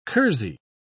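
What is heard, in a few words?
"kersey", British English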